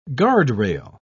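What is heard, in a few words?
"guardrail", British English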